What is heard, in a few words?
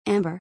"Amber", English